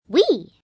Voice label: French Yes, Response, Foreign, 1 girl, 9 yrs., French; Talking Sound Effects